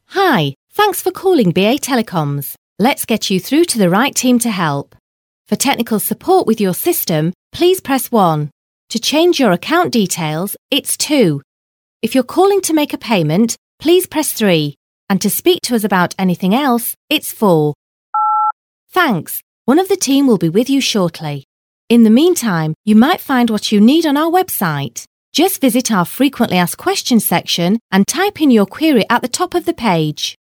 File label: Northern